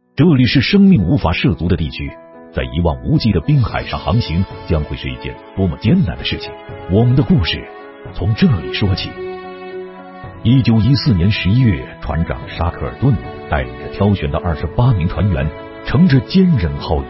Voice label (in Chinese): 男 动画游戏配音; 六分仪